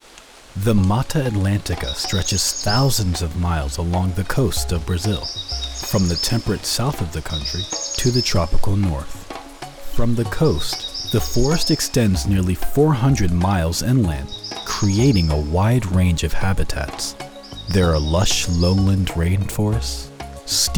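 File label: Documentary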